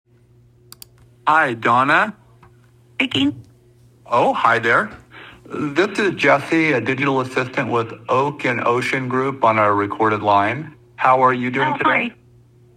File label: Sample Call Real Estate call